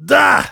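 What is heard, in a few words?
Heavy yes, German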